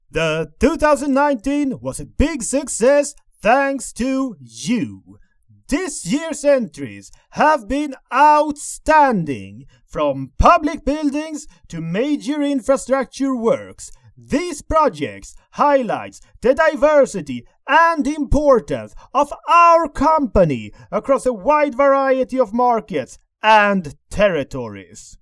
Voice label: Corporate Ceremony VO